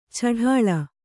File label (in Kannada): "ಛಢಾಳ", Kannada